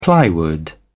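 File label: "plywood", British English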